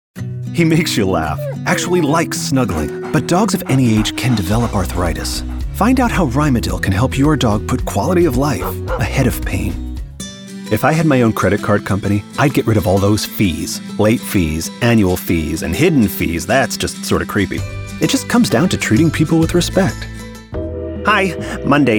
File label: CommercialVO; Commercial Voice-Over